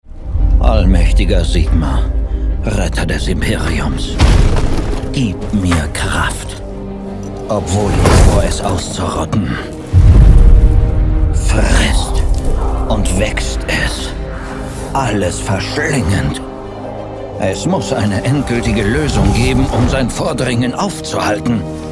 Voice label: Dramatisch; Fremdtake

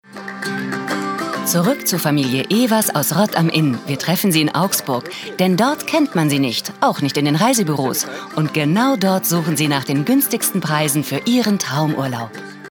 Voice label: Fremdtake Freundlich

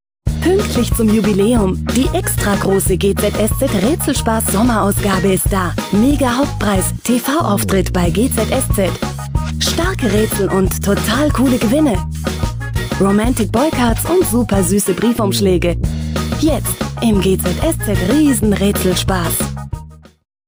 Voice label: Freundlich; Fremdtake

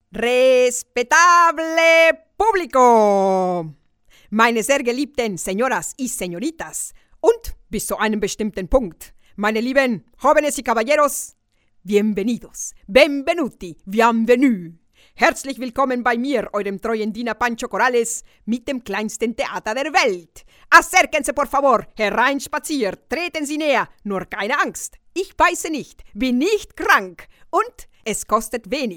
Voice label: Akzent Spanisch

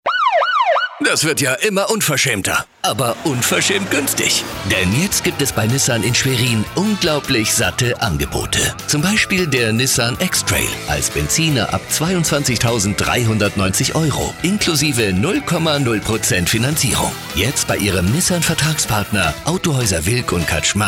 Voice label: W Fremdtake Dynamisch

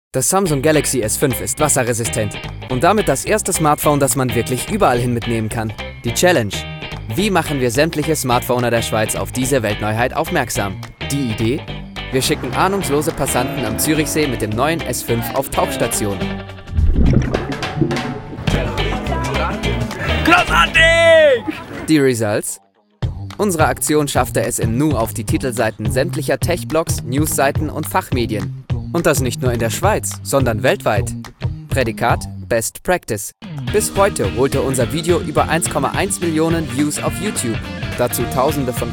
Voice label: Lebendig; Fremdtake

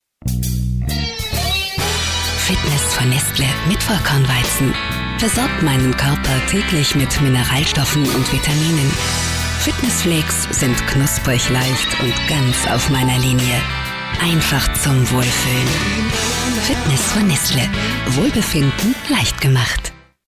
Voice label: Werbung Sanft

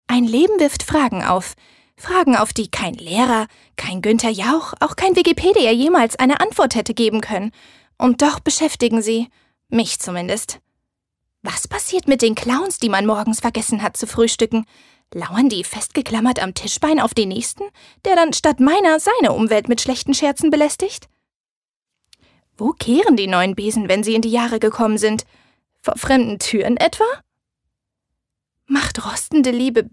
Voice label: Schauspiel Dynamisch

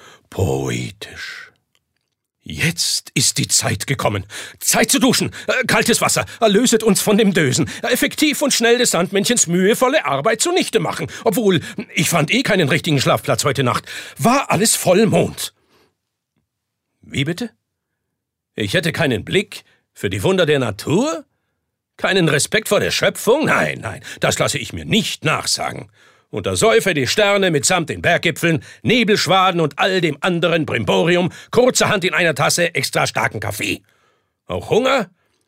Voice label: Schauspiel Variabel

